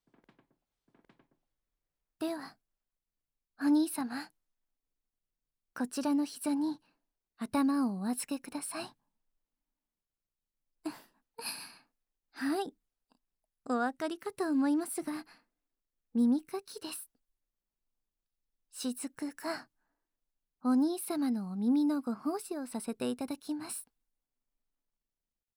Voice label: 妹メイドは兄の耳かきをします 耳かき, ASMR

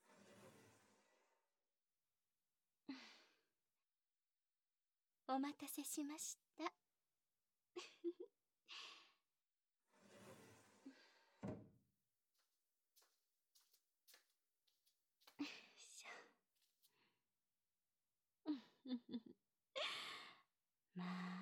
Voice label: 吐息のリズムに合わせて扱くオナサポ, ASMR

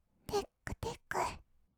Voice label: "てくてく", kawaii voice; ASMR; 色々なワンショット

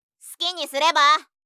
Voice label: "すきにすれば？", 相づち・反応系【ネガティブ】; ASMR

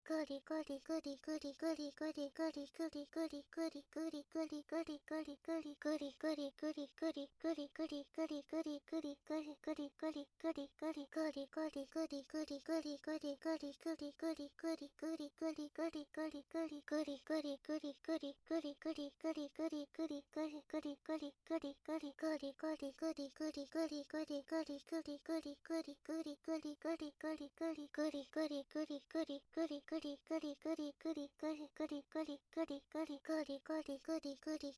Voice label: ぐりぐりボイス 鬼畜; 汎用エロボイス; おまけ音声（汎用指示ボイス・汎用エロボイス）

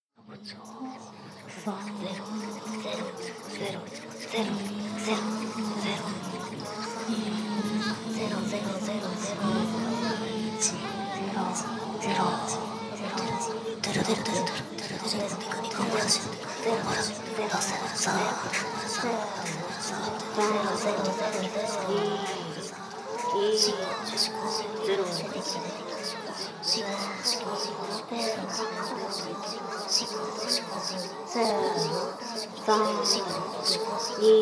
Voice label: しこしこ＋カウント＋Ｈなセリフ, ASMR; ダウナー風俗オナサポ味

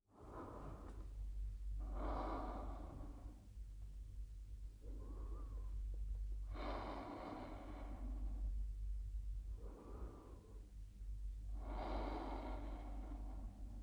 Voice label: 寝かしつけボイス

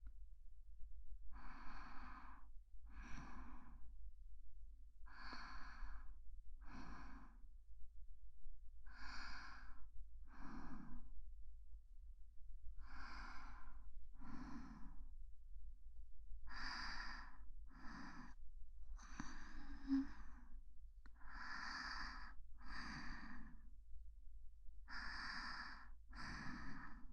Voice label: 安眠ボイス; ASMR

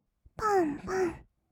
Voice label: "ぱんぱん", kawaii voice; ASMR; 色々なワンショット; 1200 kawaii voice!!

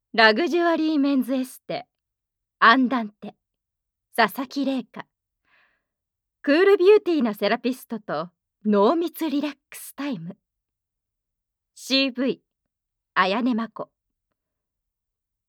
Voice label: タイトルコール, ASMR

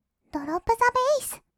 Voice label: ドロップザベィス, kawaii voice; 音ゲー

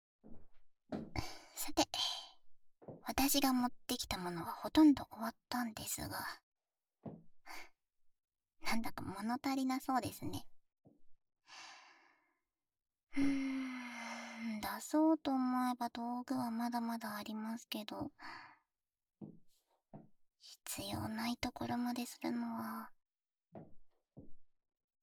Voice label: 耳の穴のマッサージ・指耳かき, ASMR

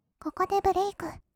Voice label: "ここでブレイク", kawaii voice; ASMR; 色々なワンショット

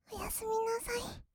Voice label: おやすみなさい, kawaii voice; ASMR; 挨拶; 1200 kawaii voice!!

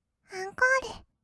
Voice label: "アンコール", kawaii voice; 1200 kawaii voice!!; 色々なワンショット

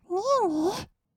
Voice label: "にぃに", kawaii voice; ASMR; 二人称

